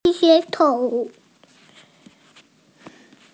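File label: "chou3", Mandarin Chinese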